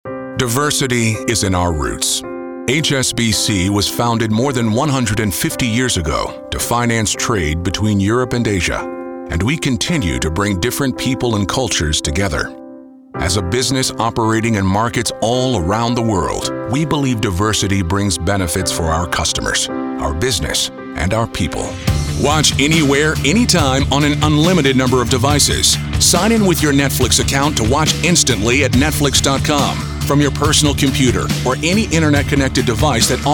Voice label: commerical men corporate; commercial men

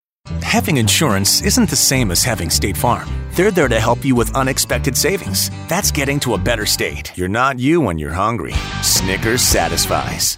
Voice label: commercial, men

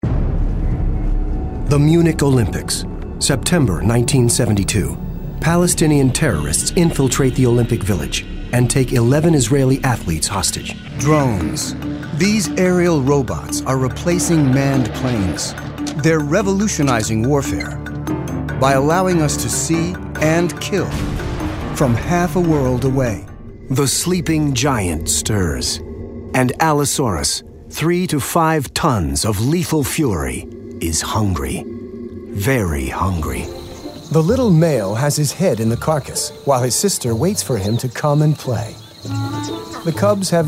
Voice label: narration

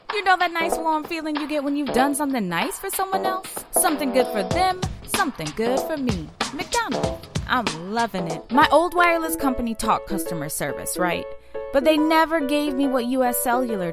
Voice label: commercial women

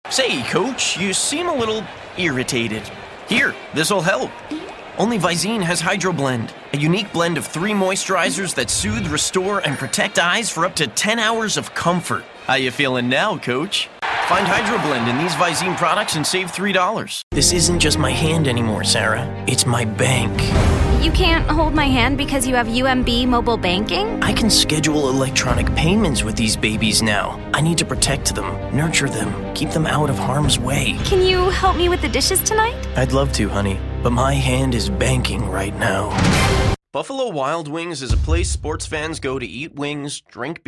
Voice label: commercial, men

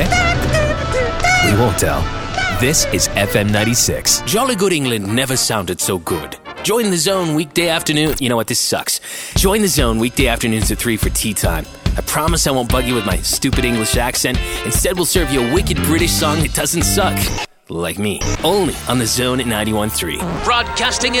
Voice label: radio rock, radio imaging

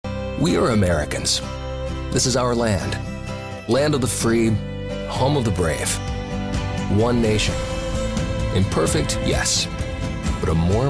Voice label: tv affiliate men

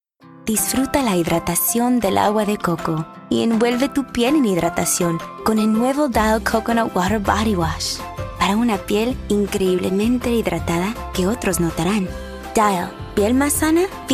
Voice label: languages spanish female

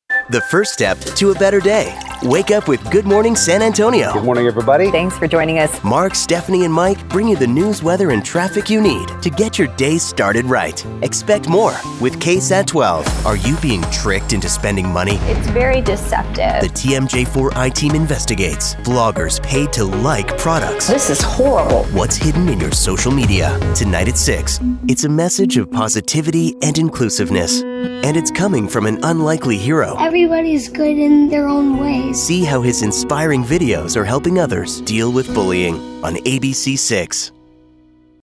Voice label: tv affiliate men

